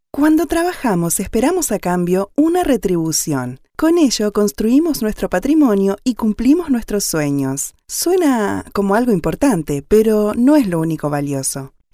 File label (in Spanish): Explicativo